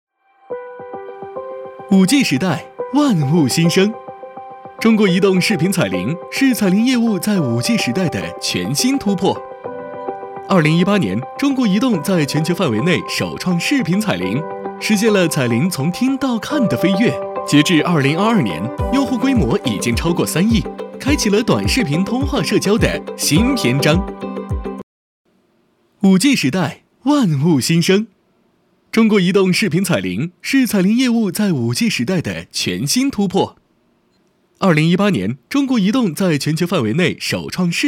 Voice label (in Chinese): 男 中文 年轻 MG动画 活泼